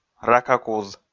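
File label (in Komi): "ракакуз", Komi, male; audio dict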